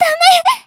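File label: damaged, Japanese; mayu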